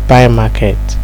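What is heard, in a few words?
"buy market", Nigerian Pidgin, male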